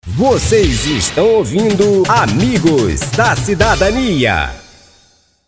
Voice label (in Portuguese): vinheta amigos da cidadania locutor